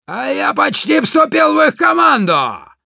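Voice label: Demoman jeers, russian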